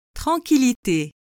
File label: "tranquillite", French